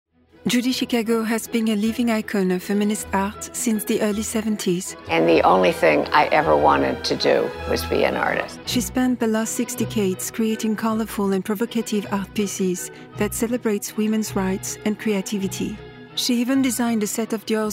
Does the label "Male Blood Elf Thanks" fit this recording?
No